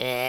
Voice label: jump, speech Super Villain